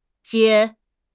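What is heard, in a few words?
"jie1", Mandarin Chinese; HSK2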